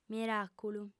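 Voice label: "miràculu" Sardinian, female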